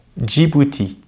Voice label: "Djibouti", French; Consonantes en francés; easypronunciation

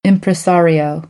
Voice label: "impresario", American

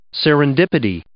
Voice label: "serendipity", English

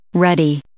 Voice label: "ruddy", English

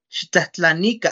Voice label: "¡xitlatlanika!", Náhuatl; pregunten; ask

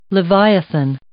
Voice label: "leviathan", English

